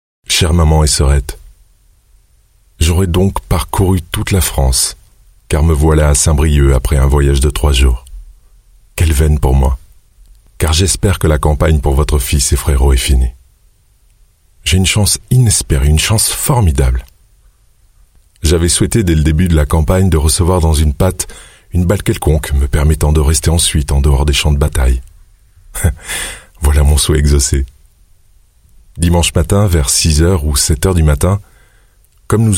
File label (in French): French Lettre; Lettre de Louis Benoit 1916